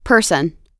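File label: "person", US English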